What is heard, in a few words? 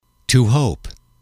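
"to hope", English, male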